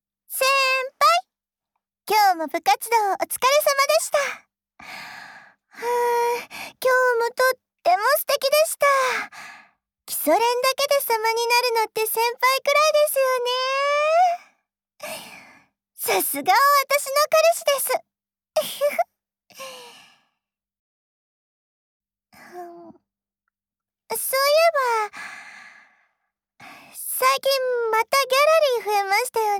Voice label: LOVE Whisper, ASMR